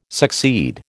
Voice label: "succeed", American